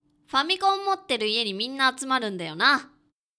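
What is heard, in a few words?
male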